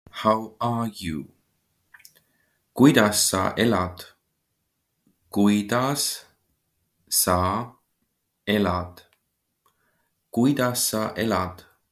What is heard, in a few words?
How are you, Estonian phrasebook; the talking estonian phrasebook